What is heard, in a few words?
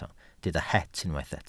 "het", Welsh